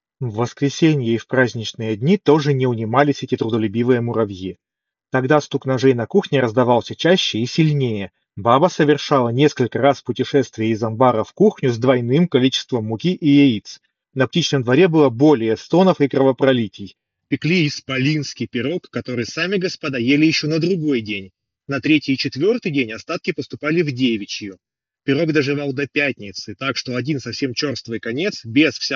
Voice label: Mic; sony wf-1000xm6